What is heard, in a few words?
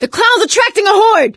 see clowns, survivor voice; Left 4 Dead 2; producer